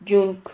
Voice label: "yunc", Silabas

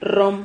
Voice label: "rrom", Silabas

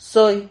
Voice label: "soy", Silabas

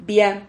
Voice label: "via", Silabas

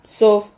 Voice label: "pso", Silabas

